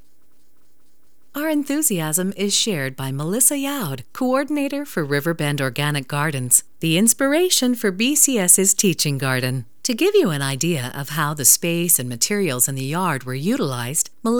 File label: Narrator